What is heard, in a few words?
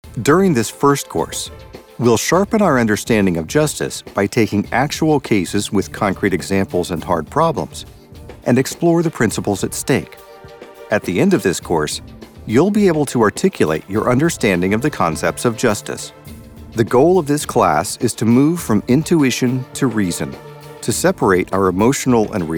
voiceover elearning serious